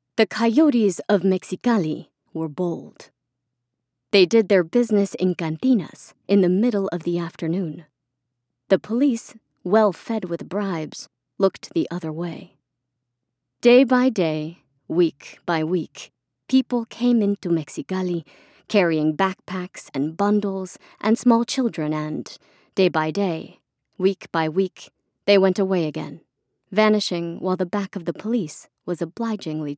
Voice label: Bilingual Audiobook Spanish Accented